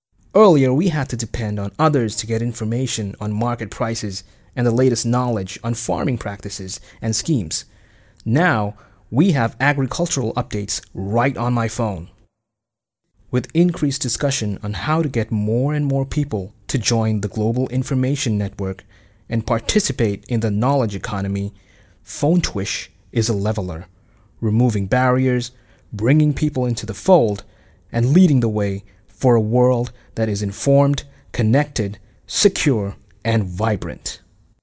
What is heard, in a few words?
corporate us eng